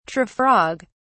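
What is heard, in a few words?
"tree-frog", British English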